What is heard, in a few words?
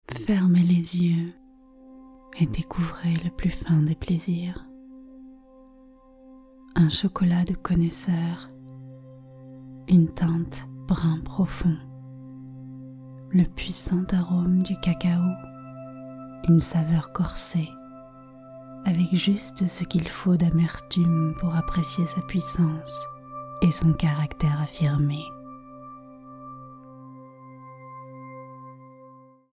SEDUCTIVE FR; LOFT VOICES